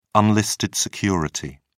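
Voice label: "unlisted security", English, British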